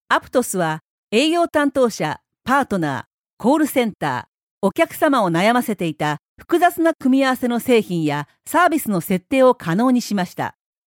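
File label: Japanese, female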